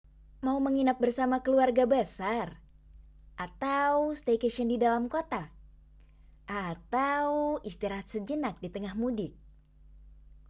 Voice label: BAHASA CASUAL

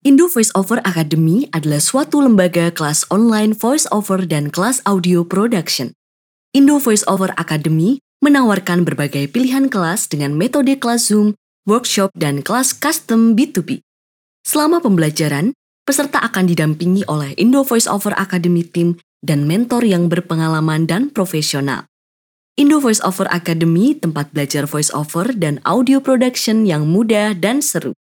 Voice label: INDONESIA FORMAL

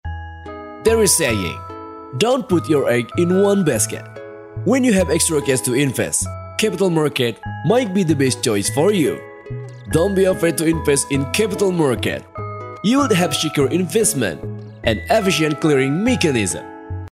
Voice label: ENGLISH CASUAL

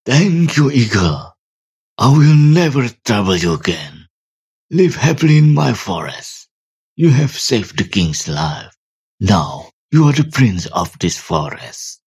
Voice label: character English

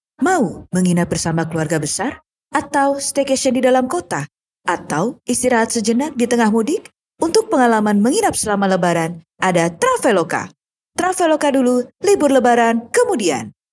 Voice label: CASUAL INDO

